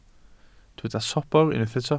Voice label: "sobr", Welsh